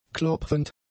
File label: "klopfend", German; adjectives